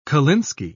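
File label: "kolinsky", English, British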